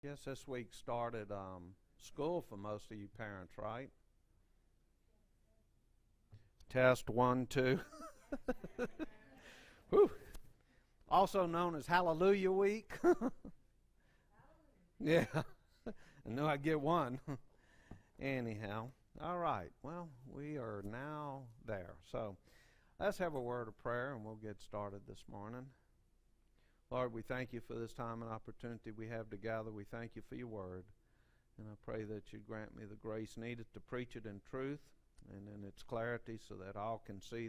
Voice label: Preaching